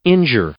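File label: "injure", English, male; verb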